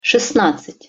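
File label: "sestnadcat", Russian pronunciation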